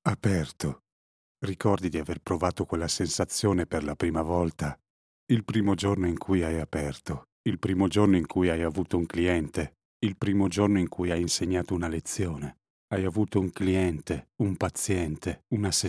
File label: italian political ads